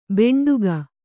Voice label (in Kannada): "ಭಾಂಡಿಕ", Kannada